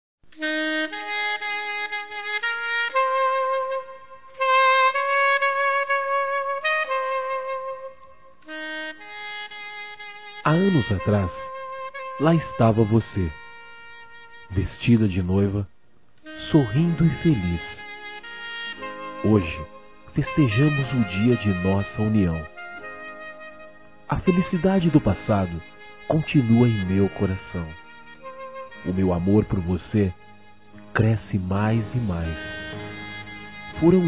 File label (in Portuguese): Nosso Aniversário Casamento Masculino; Telemensagens 2017; Aniversários